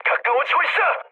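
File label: Korean near waypoint mood medium; War Thunder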